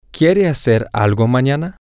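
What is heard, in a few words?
Spanish, male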